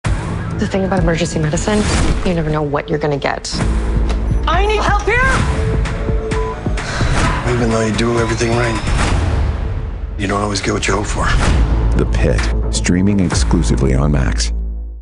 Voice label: promos; The Pitt